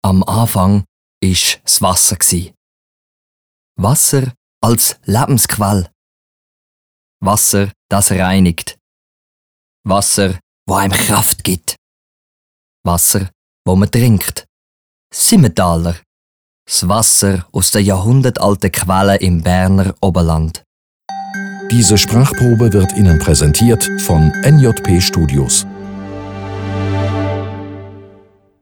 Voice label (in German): Spot sanft